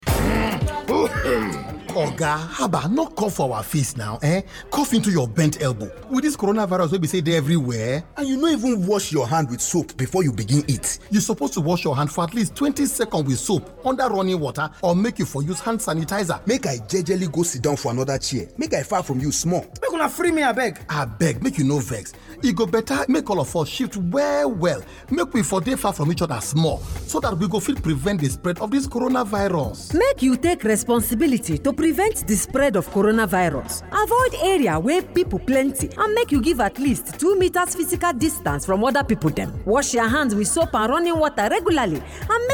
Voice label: Restaurant Pidgin